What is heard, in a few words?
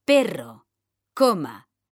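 "perro coma", Spanish, female; mekanta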